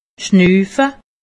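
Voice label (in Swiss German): "schnufe", Alsatian; medecine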